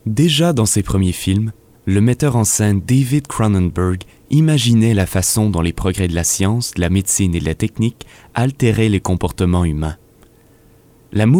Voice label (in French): NARRATION